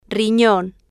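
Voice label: "rinon", Spanish, female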